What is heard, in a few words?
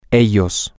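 them, Spanish, male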